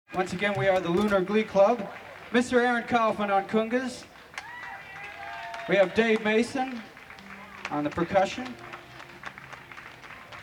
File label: Announce Band